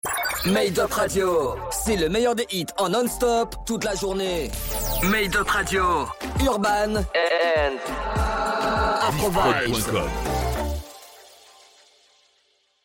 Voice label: Radio Jingle Urban and Afro vibes

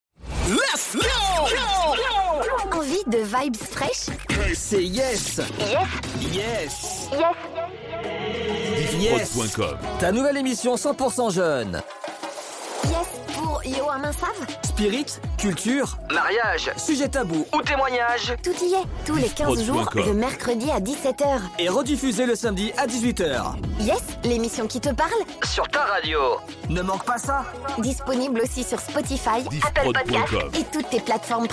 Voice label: Promo; YES

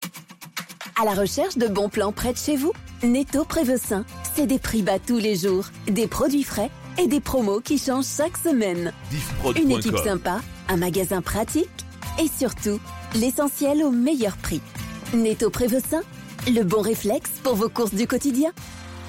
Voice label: Spot; Netto Prevessin

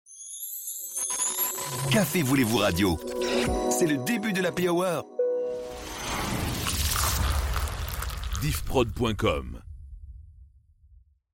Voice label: Radio Jingle Happy Hour; Cafe voulez vous

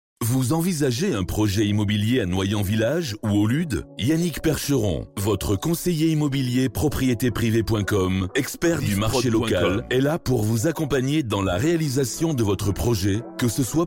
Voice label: Spot; Proprietes Privees com

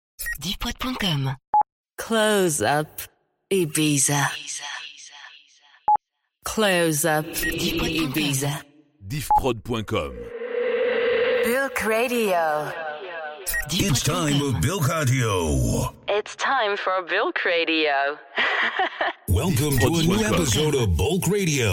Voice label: FR US ES Drops A cappellas

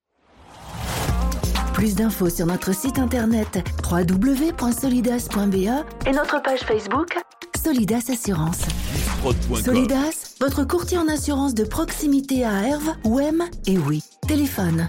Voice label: Jingle Outro; Radio 4910; Chronique Solidas

